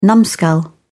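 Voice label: "numskull", English, British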